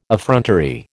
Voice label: "effrontery", American